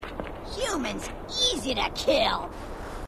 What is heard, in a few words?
grunt; h2dialogue; grunt crazy